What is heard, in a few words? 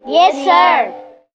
yes sir, kids